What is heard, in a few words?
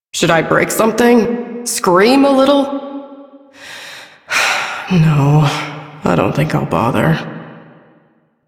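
Female Sedentary; Warframe; Duviri; Duviri Ambient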